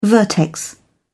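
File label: "vertex", British English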